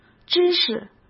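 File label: "知识", Mandarin Chinese; hsk; chinese-flashcards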